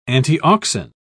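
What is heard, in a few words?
"antiauxin", English, British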